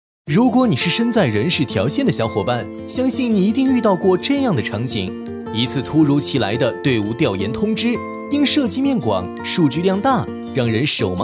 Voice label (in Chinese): 男; 数智识